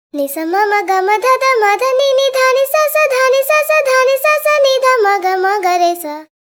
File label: pitch shift voice; serato pitch n time le